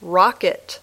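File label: "rocket", English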